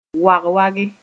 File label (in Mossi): "wag-wage", Mooré